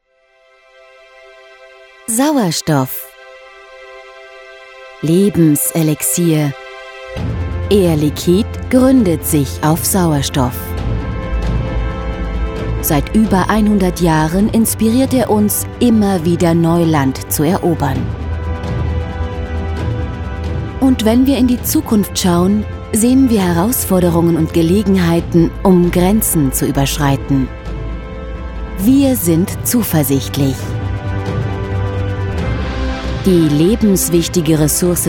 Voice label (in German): Offstimme de; warme, ausrucksstarke Stimme; air liquide